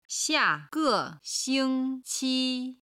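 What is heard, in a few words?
"xiage xingqi", Chinese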